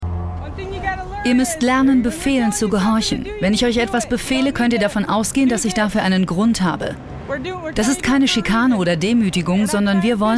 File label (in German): Neutral; Fremdtake